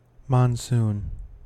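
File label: "monsoon", US English; pronunciations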